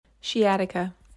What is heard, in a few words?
"Shee Atika", pronunciation guide; (shee AD-ik-a); Tlingit, Sitka